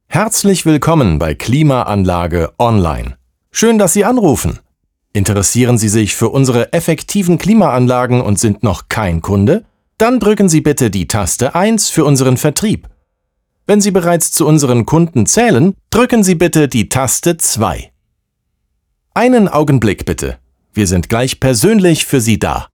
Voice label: german ivr; actor de doblaje profesional